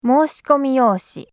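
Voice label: "moushikomiyoushi", Japanese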